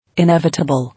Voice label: "Inevitable", English

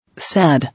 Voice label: "Sad", English

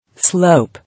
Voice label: "Slope", English

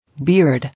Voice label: "Beard", English

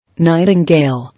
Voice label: "Nightingale", English

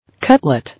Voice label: "Cutlet", English

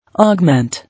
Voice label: "Augment", English

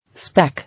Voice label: "Speck", English

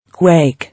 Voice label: "Quake", English

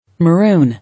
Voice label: "Maroon", English

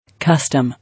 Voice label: "Custom", English

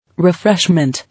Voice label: "Refreshment", English

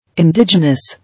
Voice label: "Indigenous", English

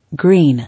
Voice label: "Green", English

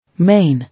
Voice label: "Main", English

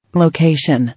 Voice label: "Location", English

